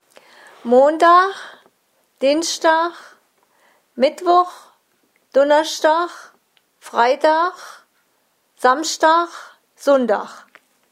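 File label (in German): wochentage, O-Ton Hunsrück; Dichtelbach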